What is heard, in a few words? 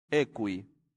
"equi", latin; santillana